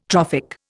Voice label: "trophic", Indian